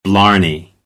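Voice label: "blarney", American English; AmericanBritish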